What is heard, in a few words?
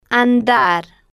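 "andar", slow, Spanish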